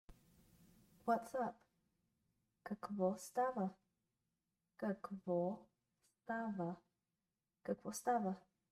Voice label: What's up, Bulgarian; the talking bulgarian phrasebook